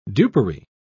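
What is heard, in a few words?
"dupery", British English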